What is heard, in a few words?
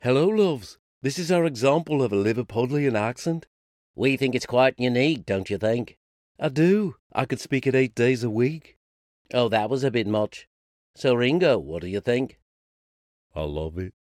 Liverpudlian; Voice, Acting & Singing samples